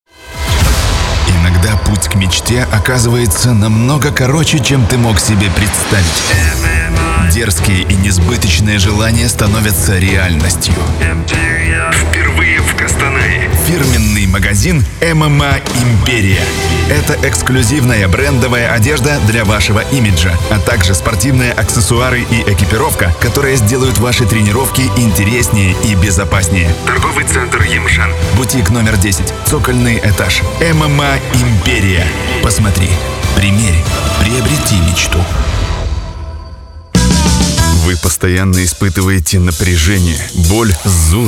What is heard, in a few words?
russian m commercial